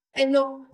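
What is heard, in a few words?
Voice Acting es, female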